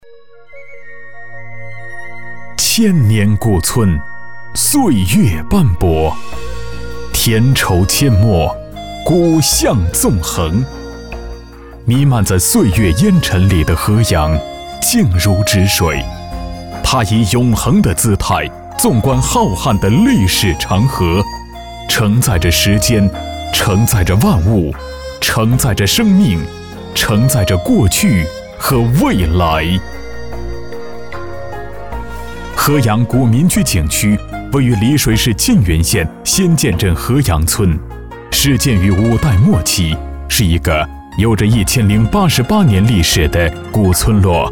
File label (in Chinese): 景区介绍配音; 千年河阳